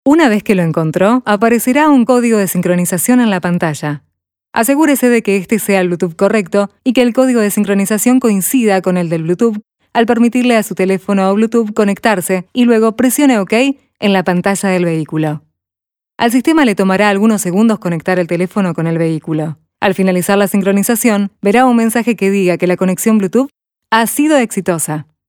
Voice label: local spanish